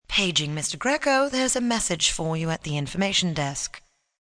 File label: Paging, PA, female; Paging Grecko FX; Pa Announcement; VoiceBox